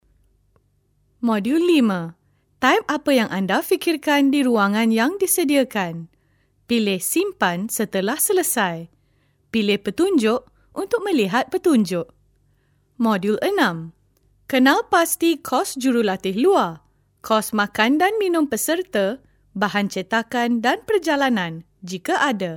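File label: Malay; i Think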